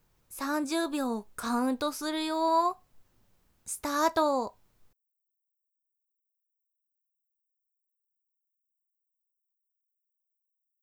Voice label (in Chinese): 计时30秒, 女生为你进行健身计数的语音; 少女